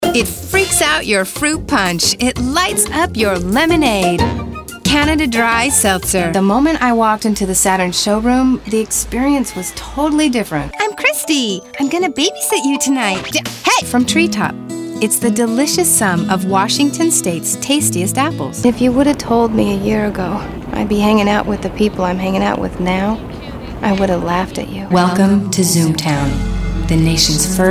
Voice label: Commercial